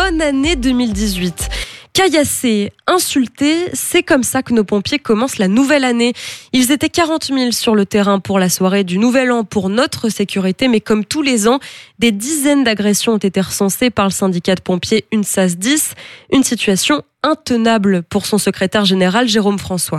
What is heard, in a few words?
Journal midi Radio; SUD Radio